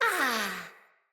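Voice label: Death Corrupted Sprouts, en; Battle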